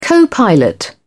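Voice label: "co-pilot", English, British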